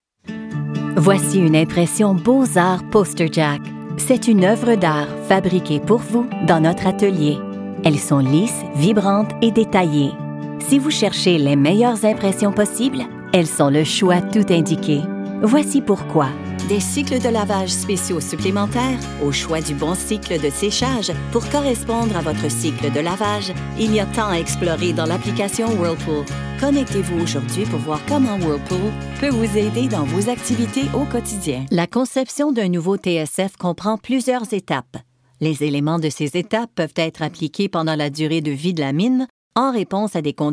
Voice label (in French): narrations, fr